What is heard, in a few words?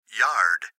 Gps Voice, Male; Laptop Speaker Adult Male; Recorded Voice